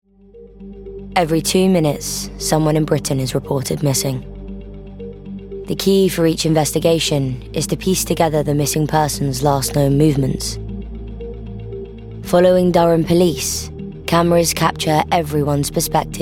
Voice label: Documentary

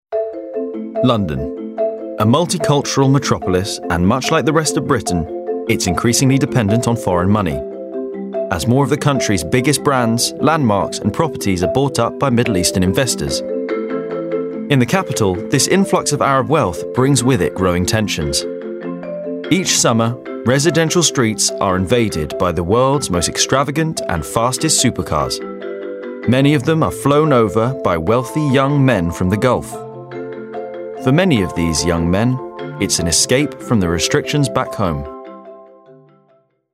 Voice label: Narration

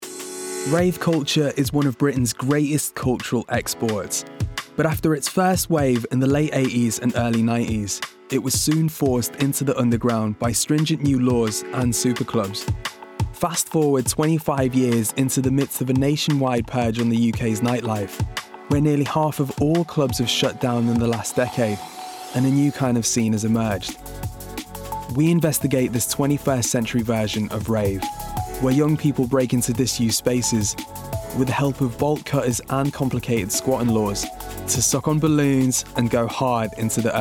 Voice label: Documentary

